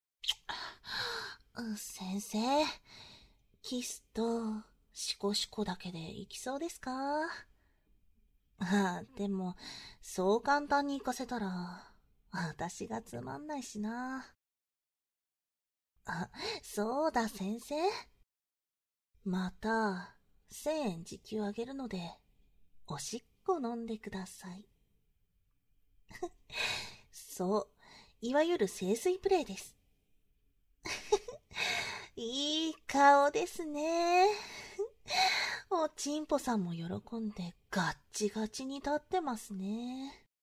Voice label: ASMR; SE有り; おしっこ漏らしクンニ